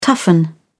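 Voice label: "toughen", English, British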